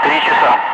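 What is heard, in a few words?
Russian speech, Ka-50 Wingman; DCS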